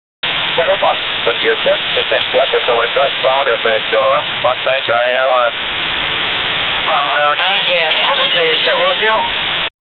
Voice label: metropolis kavalas radio, announcement